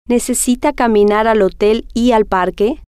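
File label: necisita Caminar Hotel Parque, Spanish, female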